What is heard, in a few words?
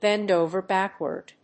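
"bend over backward", English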